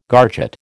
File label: "garget", American